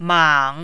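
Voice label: "mang", Mandarin, third tone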